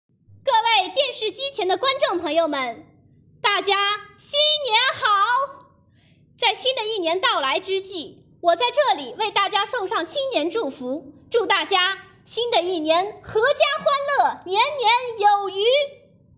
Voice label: 主持春晚

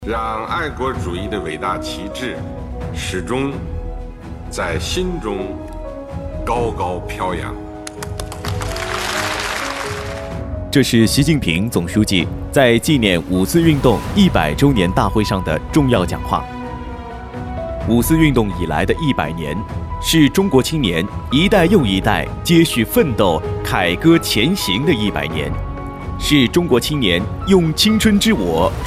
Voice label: 宣传音频

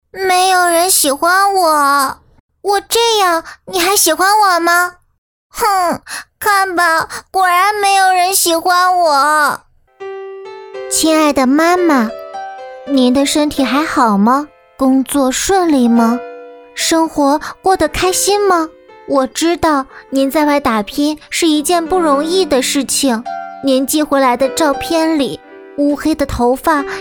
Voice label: 女童

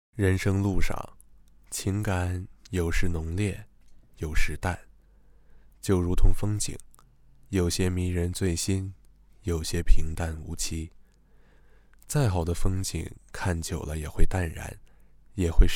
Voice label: 情感类节目; 样音情感类节目

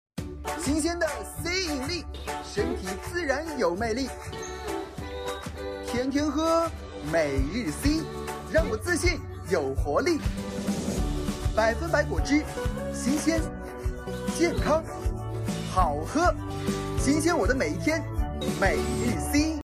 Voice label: 广告类青春活力

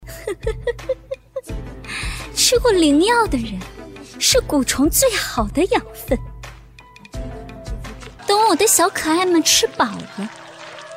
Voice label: 妖媚女声

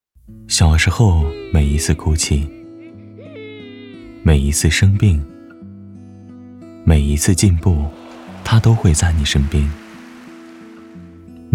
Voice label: 宣传; 人生是减法不要让她太孤单

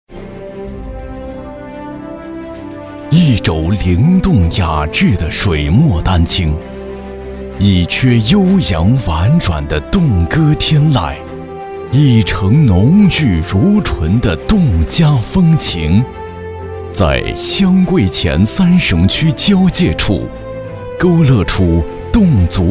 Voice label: 旅游形象宣传男; 三江县

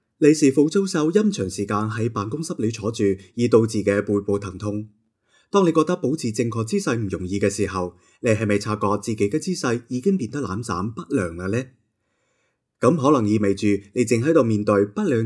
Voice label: 粤语; 粤语王

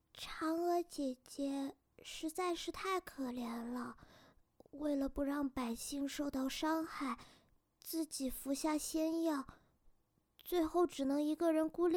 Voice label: 萝莉虚弱

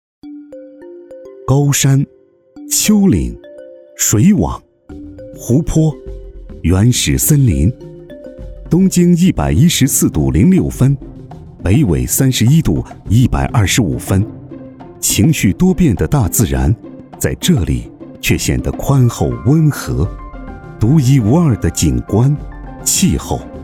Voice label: 舌尖风格 纪录; 山林美味

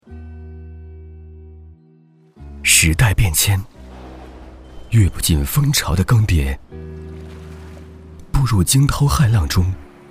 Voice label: 广告品质磁性预告片; 招商银行

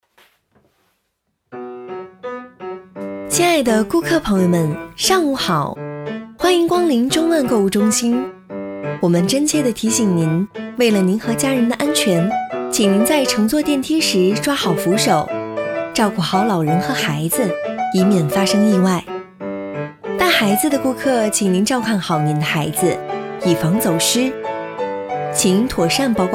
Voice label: 安全提示禁火提醒

